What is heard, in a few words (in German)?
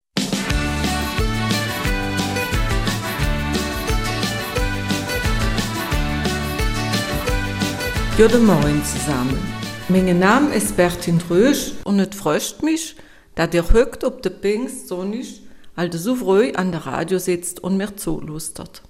Eifeler Mundart; Das Pfingstfest